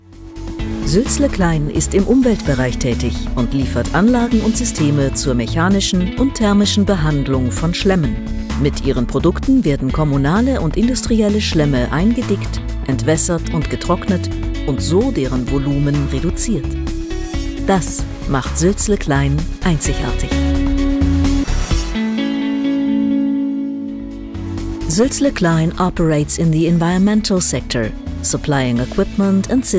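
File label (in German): Imagefilm Anlagenbau deutsch englisch; Bamberg, Bayreuth, Coburg, Nürnberg; Muttersprache Deutsch; suelzle-klein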